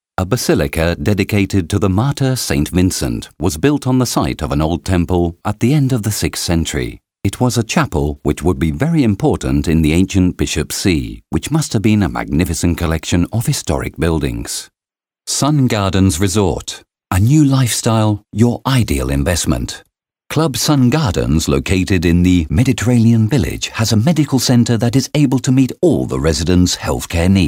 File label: narrative